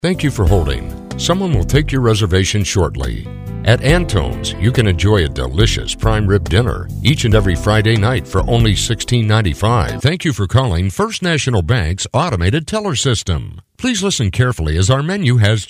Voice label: MESSAGING IVR